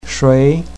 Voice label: "shui2", pinyin